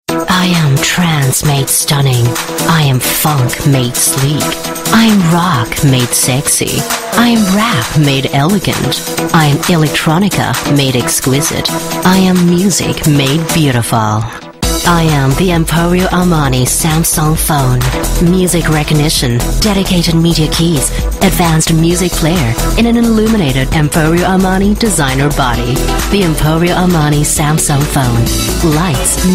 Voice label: Asian English commercial sassy urban

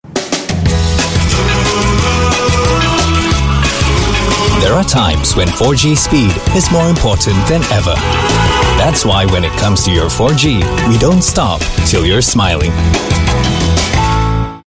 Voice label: 4G TVC, English Singaporean Male; SingTel

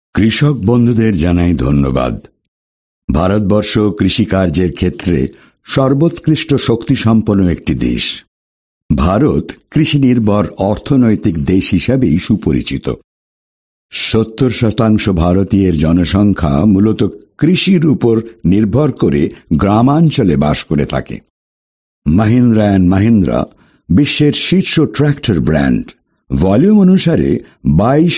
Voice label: Bengali VO, India Male

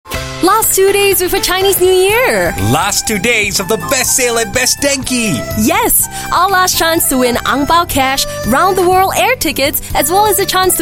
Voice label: Asian English Commercial Celebratory; Best Denki

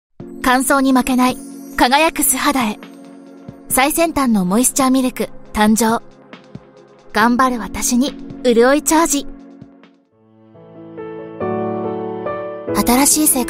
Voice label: Japanese Commercial

